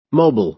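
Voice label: "mobile", American English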